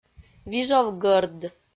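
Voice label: "вижовгӧрд", Komi, female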